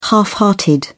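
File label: "half-hearted", British English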